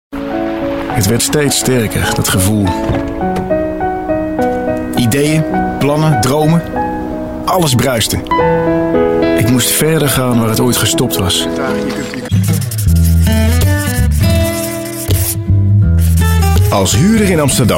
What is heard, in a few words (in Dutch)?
corporate